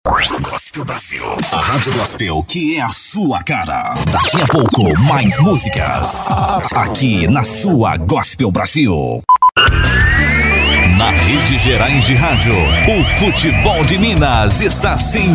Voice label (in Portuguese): vinhetas voz locutor vinhetas de radio